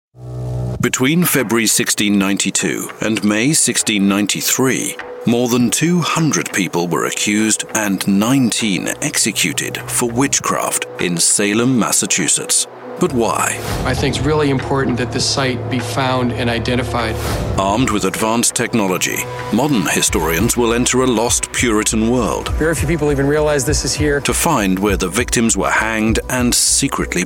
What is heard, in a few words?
international, narration